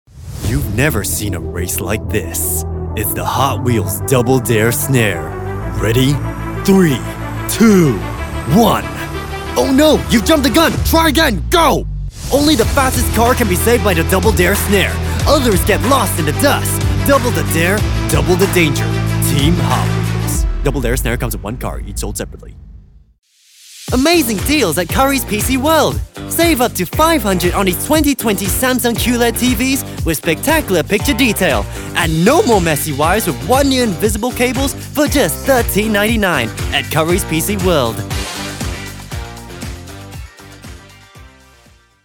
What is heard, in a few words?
Commercial